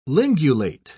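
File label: "lingulate", British English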